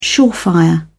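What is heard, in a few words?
"surefire", British English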